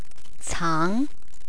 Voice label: "caang", Cantonese